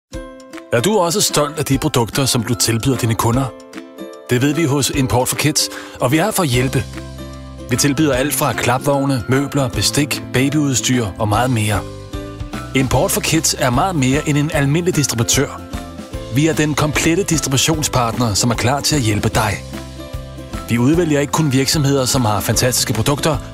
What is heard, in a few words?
male danish voice over talent upbeat